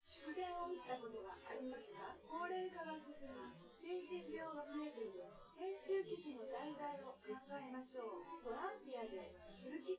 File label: female synth conv; SiSEC10; underdetermined